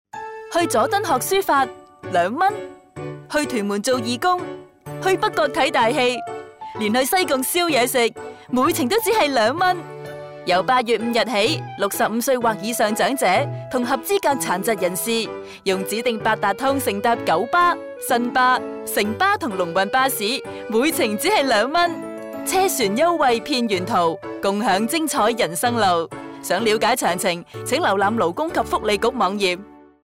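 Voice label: Cantonese Radio; 扩展至九巴、新巴、城巴及龙运巴士[2012]; 政府长者及合资格残疾人士公共交通票价优惠计划 (二元优惠计划); 粤语广播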